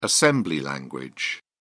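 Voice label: "assembly language", British English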